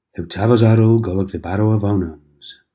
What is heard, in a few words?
cornish